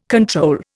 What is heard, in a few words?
"control", Indian